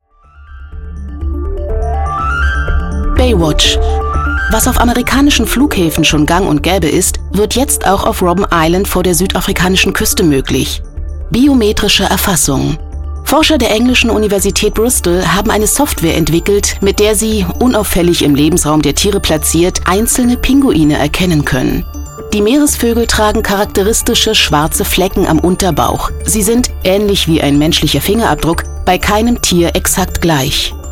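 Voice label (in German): Doku, deutsche Synchronstimme; Stimme von Julia Roberts, Calista Flockhart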